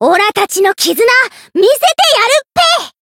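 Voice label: limit break, Japanese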